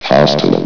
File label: "hostile", vox